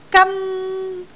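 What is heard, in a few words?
"gan1", Cantonese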